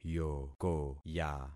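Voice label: "yokoya", concatenated syllables; Affixing experiment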